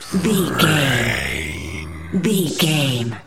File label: zombie voice brains